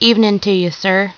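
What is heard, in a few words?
female answer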